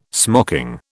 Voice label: "smocking", British